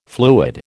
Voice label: "fluid", American

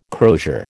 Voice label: "crosier", American

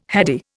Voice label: "heady", Indian

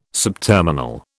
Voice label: "subterminal", British

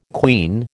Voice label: "queen", American

